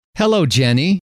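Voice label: hello, male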